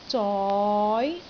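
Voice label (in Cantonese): "zoi2", Cantonese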